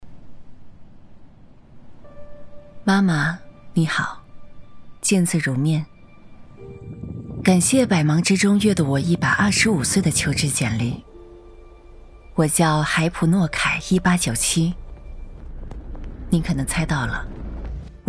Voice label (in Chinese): 女 独白自白 自然; 海普诺凯; pro妈妈你好